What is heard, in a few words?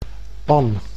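"pan", Dutch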